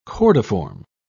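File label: "cordiform", British English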